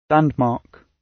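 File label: "landmark", British; Design and innovation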